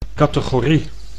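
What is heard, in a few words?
"categorie", Dutch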